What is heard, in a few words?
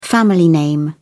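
"family name", English, British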